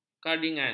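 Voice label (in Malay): "kardigan", Malay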